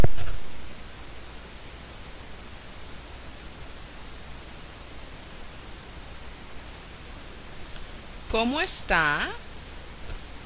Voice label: How are You Spanish Parent Toddler